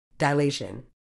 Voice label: "dilation", uk